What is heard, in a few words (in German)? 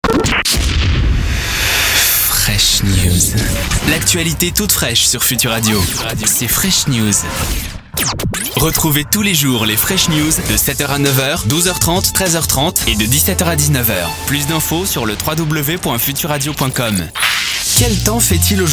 Sprecher Native speaker